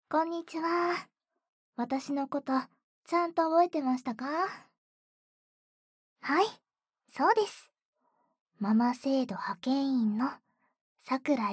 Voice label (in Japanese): 自己紹介_たーっぷり、ママが癒してあげますからねー♪